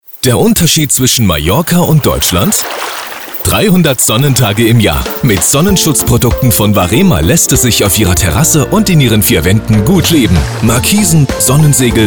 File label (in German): Radiospot; Mallorca